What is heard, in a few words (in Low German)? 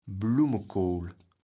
"Blummekool", kaevels platt